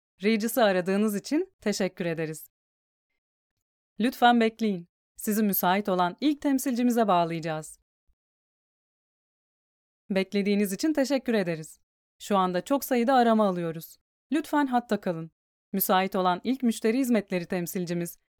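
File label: Turkish, female, IVR; REGUS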